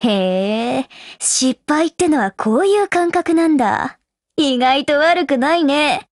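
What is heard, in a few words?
Japanese, Voice; BREAK; GF Alarm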